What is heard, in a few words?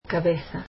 "head", Spanish